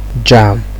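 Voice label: "jam", Nigerian Pidgin, male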